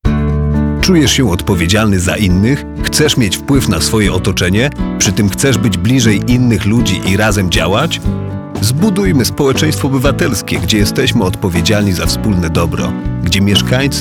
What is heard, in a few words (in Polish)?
reklama